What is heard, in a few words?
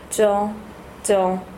zhong female